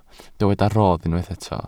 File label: "rodd", Welsh